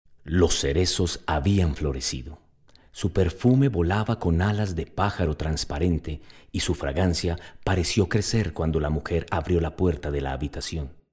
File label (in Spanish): Audiolibro voz masculina